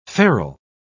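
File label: "ferule", British English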